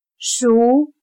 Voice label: "熟", Chino

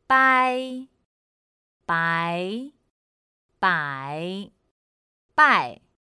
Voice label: "bāi" "bái" "bǎi" "bài", Chino

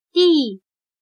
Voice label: "第", Chino

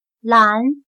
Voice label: "拦", Chino

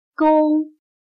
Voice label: "弓", Chino

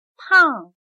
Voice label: "胖", Chino

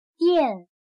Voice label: "电", Chino

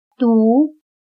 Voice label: "独", Chino

